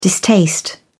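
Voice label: "distaste", British English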